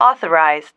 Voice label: "authorised", female